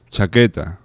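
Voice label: "chaqueta", Spanish; laboratori fonetica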